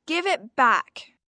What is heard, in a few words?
Give It Back, 1 girl, 12 yrs; Talking Sound Effects